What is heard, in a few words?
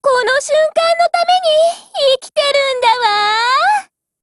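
WIN Japanese, Voice; GF Alarm; L85A1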